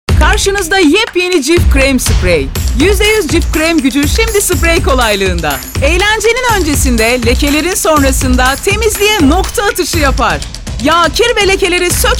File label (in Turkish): reklam; cif